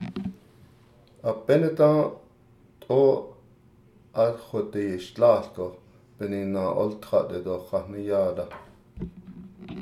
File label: navajo; I was late